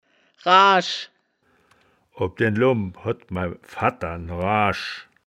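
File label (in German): "raasch", Wittlicher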